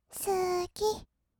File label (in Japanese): "すき", kawaii voice; 1200 kawaii voice!!; 色々なワンショット